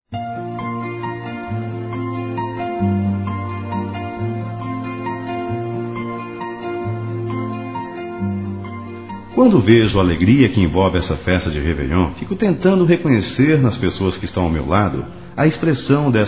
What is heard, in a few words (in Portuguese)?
ANO NOVO ROMANTIC M; Telemensagens; Natal e Ano novo; masc